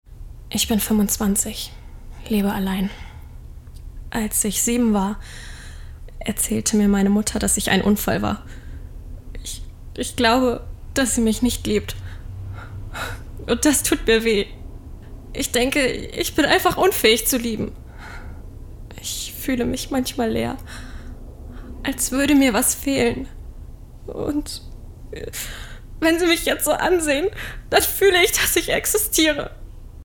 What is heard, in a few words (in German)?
Sprecherdemo Synchron Emotional